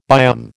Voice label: "biome", American